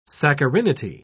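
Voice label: "saccharinity", English, British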